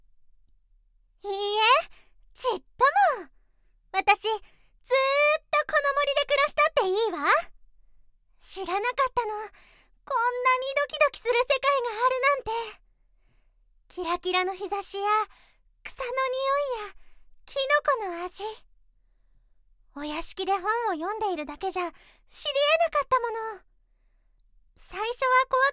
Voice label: 少女 お姫様

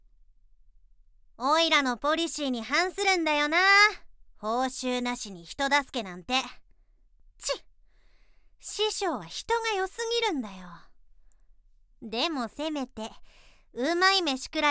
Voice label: 人外 コソ泥

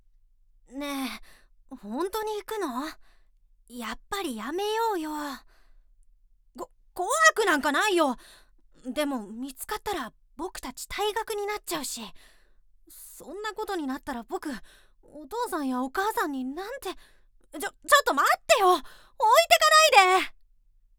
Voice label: 少年 怖い

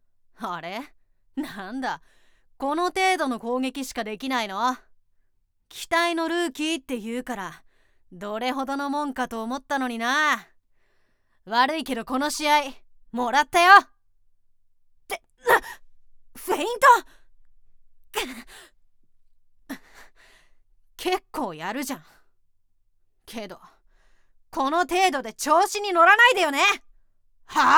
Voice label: 少年 試合

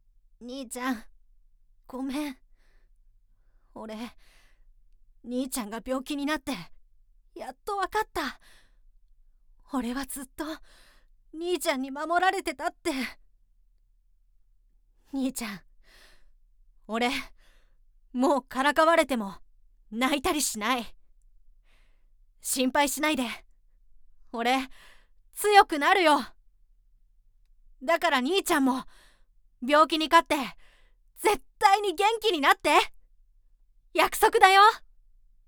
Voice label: 少年 涙→元気な振り

